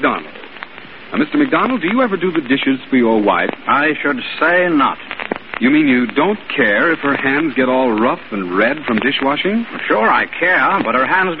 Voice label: vintage commercials rinso

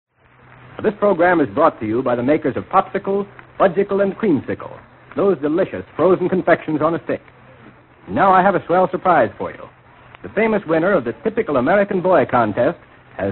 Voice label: vintage commercials popcicle

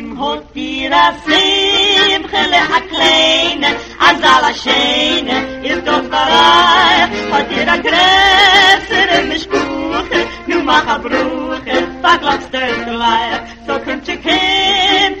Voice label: yiddish radio restaurant commercial; eastside gluckstern s; the yiddish radio project

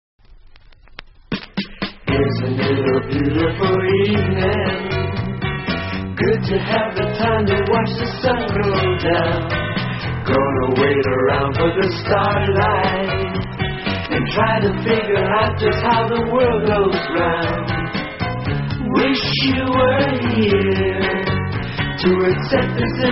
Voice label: beer spot, radio spots; miller; psychedelic promos and radio spots

